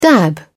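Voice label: "dab", British English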